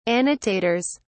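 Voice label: "annotators", British English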